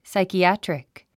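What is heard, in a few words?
"psychiatric", English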